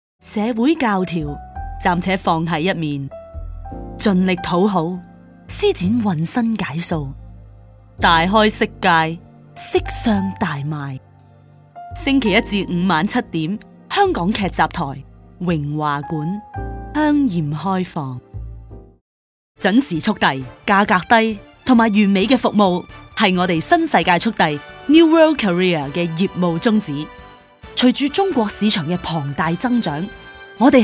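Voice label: Canto narration, Cantonese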